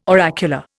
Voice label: "oracular", Indian

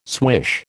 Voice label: "swish", American

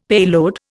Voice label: "payload", Indian; Dictionary

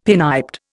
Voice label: "pinniped", Indian; Dictionary

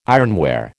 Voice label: "ironware", American

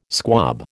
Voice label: "squab", American

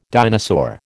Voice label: "dinosaur", American

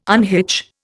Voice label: "unhitch", Indian

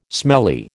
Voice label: "smelly", American

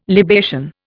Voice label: "libation", Indian

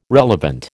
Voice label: "relevant", American

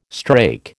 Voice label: "strake", American

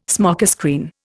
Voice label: "smokescreen", Indian

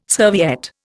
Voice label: "serviette", Indian

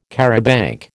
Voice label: "charabanc", American

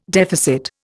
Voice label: "deficit", Indian

